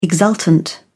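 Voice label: "exultant", British English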